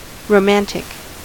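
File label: "romantic", American English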